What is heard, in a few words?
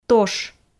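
"тош", Komi, female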